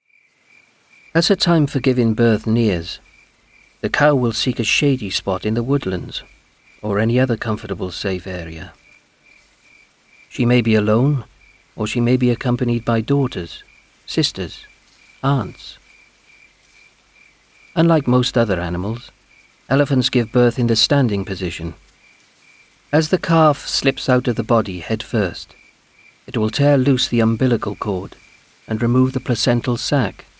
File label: Documentary; Elephants